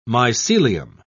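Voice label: "mycelium", British English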